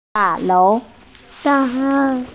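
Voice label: "da4lou2", Mandarin Chinese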